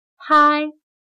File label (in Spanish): "拍", chino mandarin; pāi; tocar; golpear con las manos